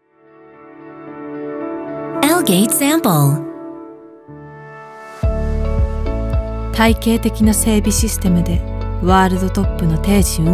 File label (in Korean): 일본 여 차분한톤